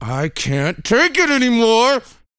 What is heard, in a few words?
TALK F; ILIO; Vocal Planet